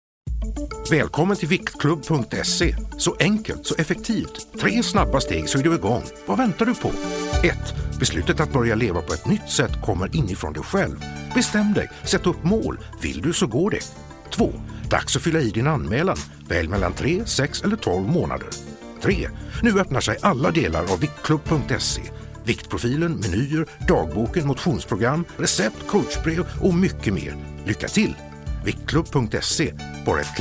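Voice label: Swedish, Commercial; GoLocalise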